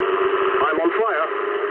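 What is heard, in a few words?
English on fire mood low; War Thunder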